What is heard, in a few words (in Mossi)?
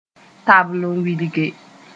"tabl n wilge", moore; to display